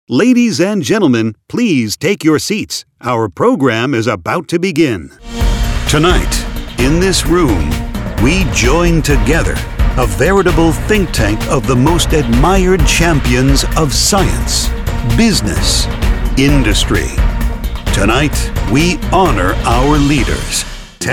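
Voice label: LIVE EVENT ANNOUNCER voice of god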